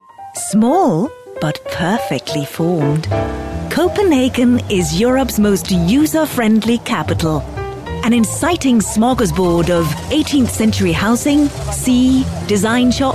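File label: Danish English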